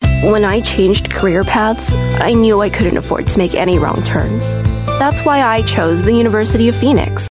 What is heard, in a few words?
US English; University of Phoenix Career Path